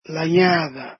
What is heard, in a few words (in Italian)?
"la gnada", Dialetto di Albosaggia; Nido